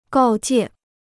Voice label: "告诫", Chinese

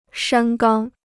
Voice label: "山冈", Chinese

